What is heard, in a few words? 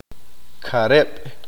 "peces", Q'eqchi'